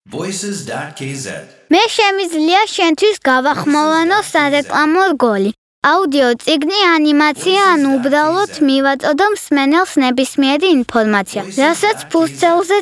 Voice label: kid boy

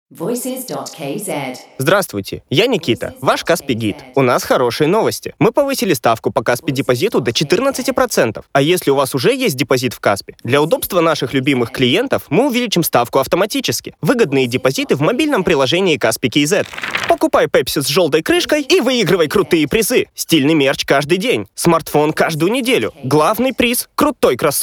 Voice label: rus

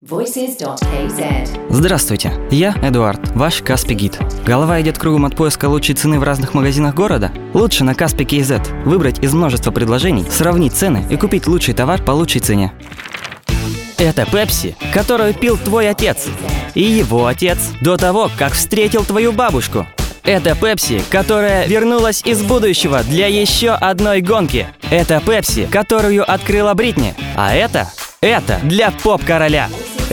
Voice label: ru

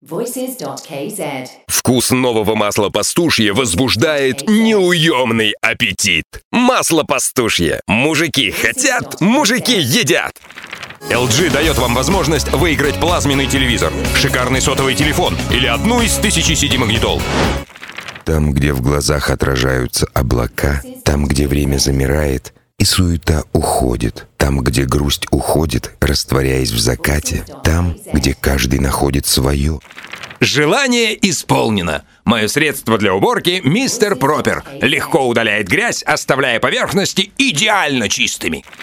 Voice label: ru

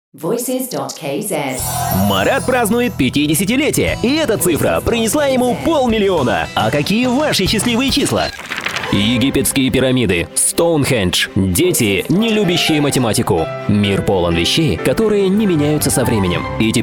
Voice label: ru

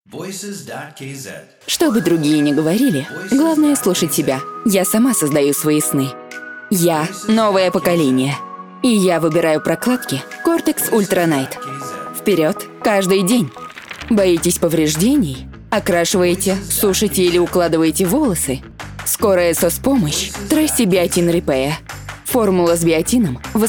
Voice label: ru

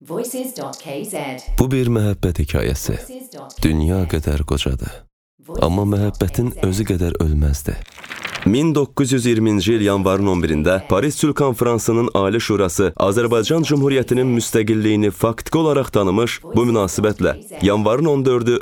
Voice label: Voice M aze

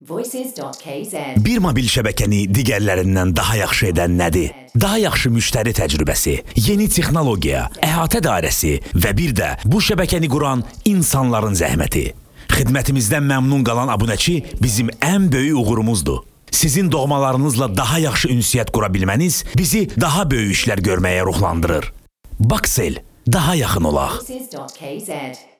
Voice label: Voice aze

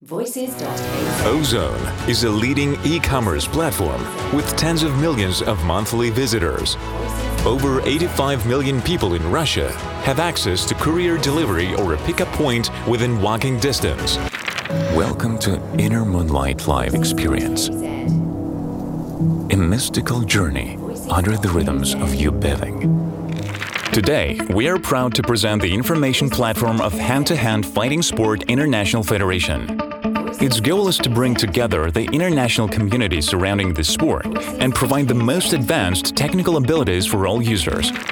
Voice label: en

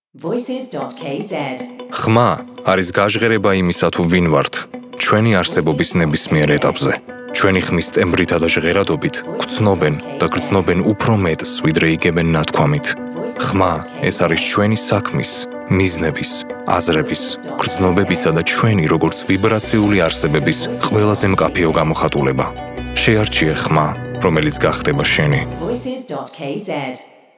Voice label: man

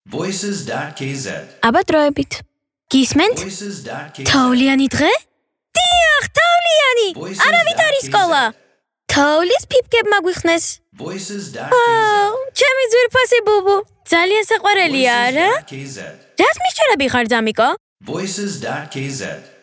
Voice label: kid girl

